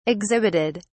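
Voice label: "exhibited", British English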